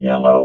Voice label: "YELLOW", robot vox